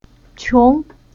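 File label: "Qiong", Chinese syllable; Уроки фонетического блока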